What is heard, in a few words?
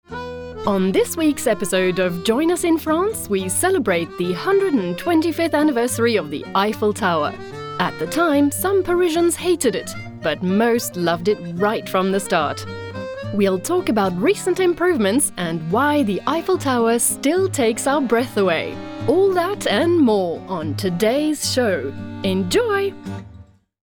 english british tv shows